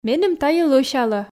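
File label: "меным тайӧ лӧсялӧ", female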